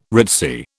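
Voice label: "ritzy", British English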